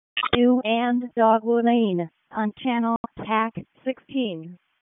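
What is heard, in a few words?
Raleigh Fire Department ALERT, p25; RFD ALERT; wake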